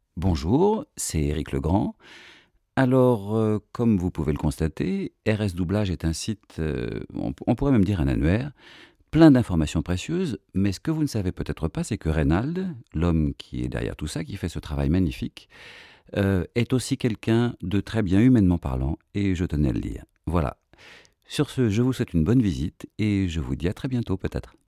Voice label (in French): doublage